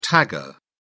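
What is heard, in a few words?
"tagger", British English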